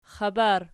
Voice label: xabar nom, archi